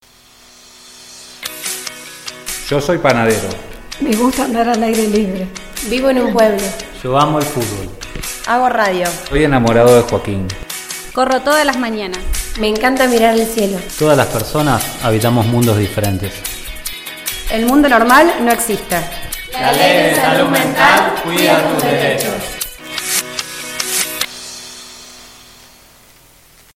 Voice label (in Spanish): SPOT